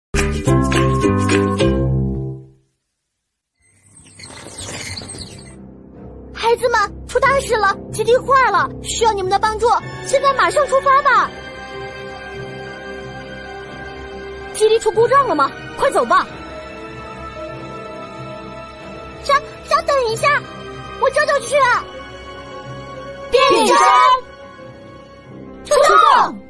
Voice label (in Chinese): 女 角色